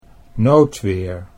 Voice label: "noodweer", Dutch